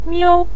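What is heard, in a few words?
"miu", Mandarin Chinese neutral tone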